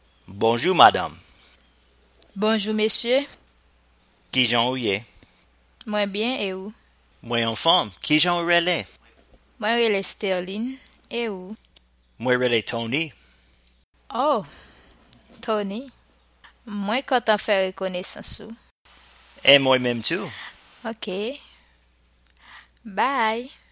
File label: basic conversation, haitian creole